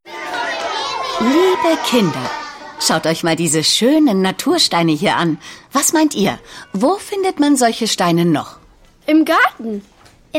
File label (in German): Spots Szene; AOK Bayern